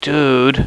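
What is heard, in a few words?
"Dude", male; VOICE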